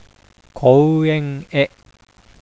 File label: "kouen-e", Japanese; preposition